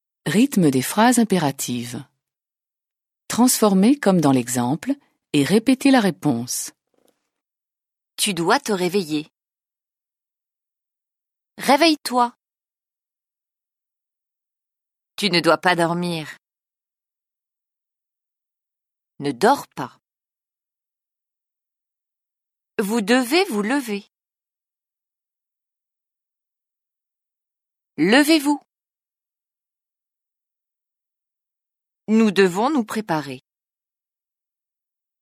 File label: French